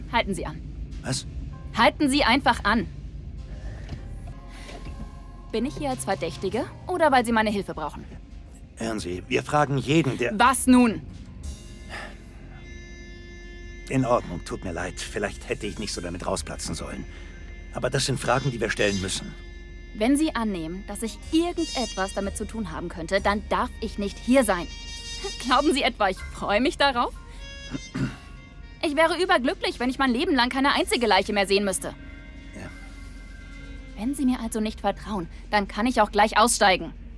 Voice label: Hoerspiel Chemie

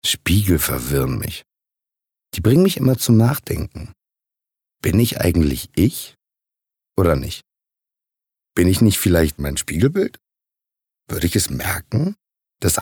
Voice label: Innerer Monolog; LOFT VOICES

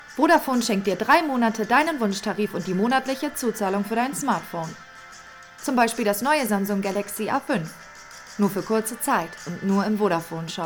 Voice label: Sprachprobe werbung

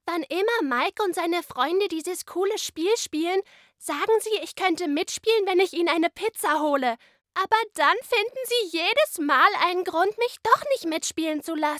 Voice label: Charge kleiner Junge

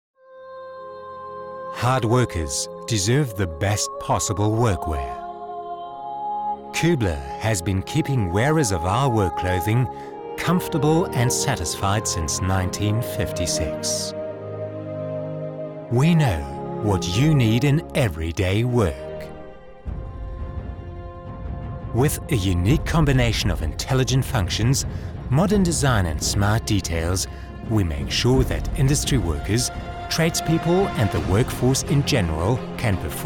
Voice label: Imagefilm Industrie englisch

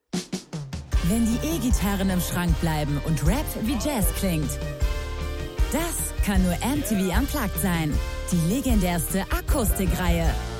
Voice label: Sprecherin; PlutoTV; MTV Unplugged

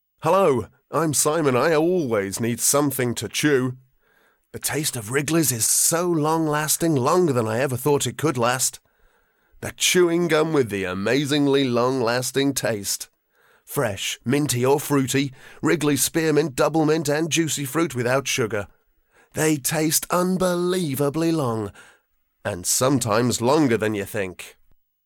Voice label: uk englisch

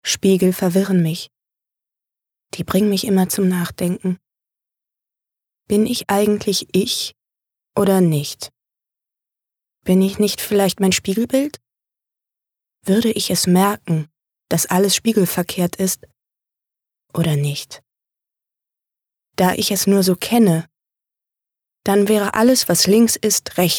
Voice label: Innerer Monolog

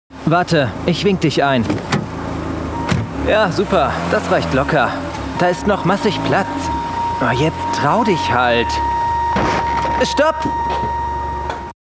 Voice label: werblich

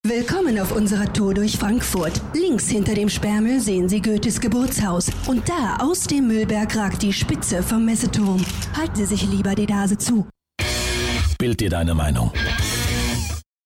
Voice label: werbung stadtfuehrerin news stimme

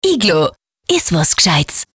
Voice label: Sprecherdemo TV Off; Inglo

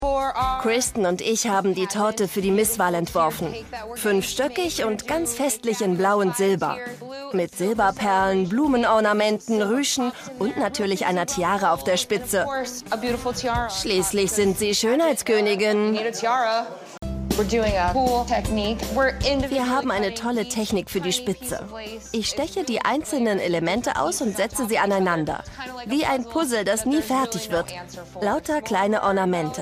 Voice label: Sprachprobe VO; Vegas Cake

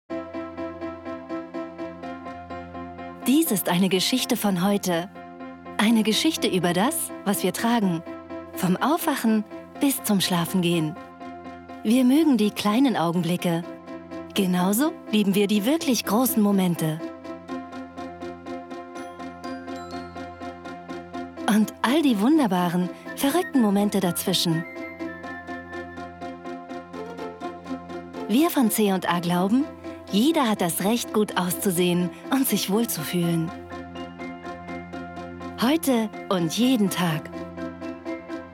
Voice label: spot werblich fraulich weich

